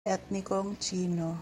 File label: Cebuano